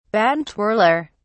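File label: "baton twirler", British English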